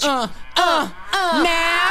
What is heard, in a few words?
"uh uh uh now", Voice; ooh ahh; uh um